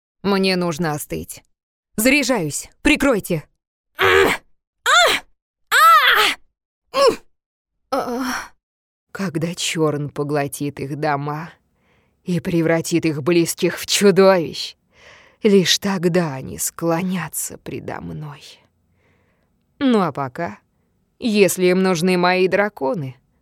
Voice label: 外籍俄语女 游戏配音 Voice Acting for Games

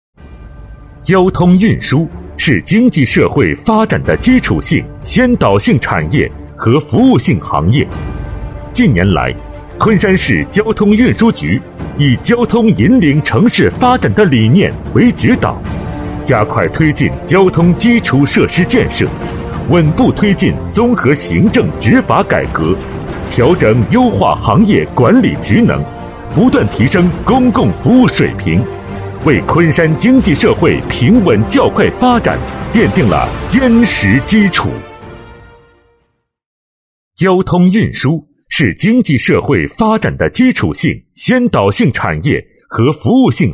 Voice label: 男 交通局 交通运输局宣传介绍

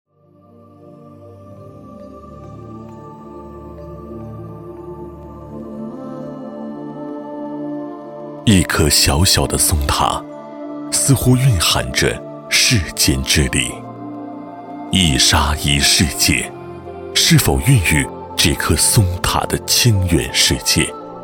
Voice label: 男60号 记录片; 青原山净居; 交广主播

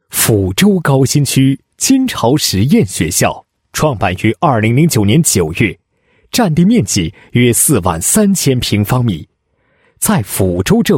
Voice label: 男 学校 解说词; 高新区金巢实验学校解说词

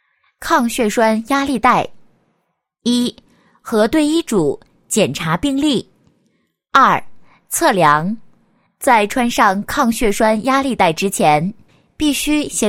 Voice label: 课件 抗血栓压力带, 国语女声配音, 磁性女配

